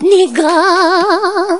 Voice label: cheer